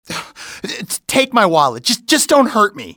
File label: wallet, english male; XBOX; civ